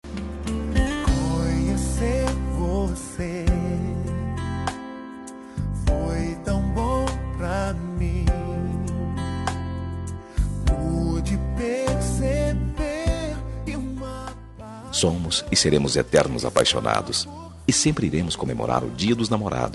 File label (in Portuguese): esposa, voz masculina; dia dos namorados para esposa voz masculina